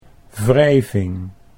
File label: "wrijving", Dutch